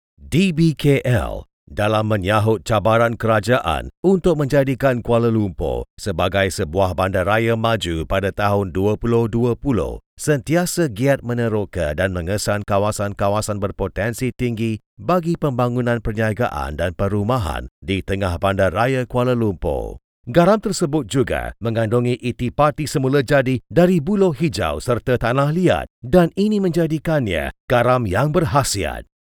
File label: malay corporate videos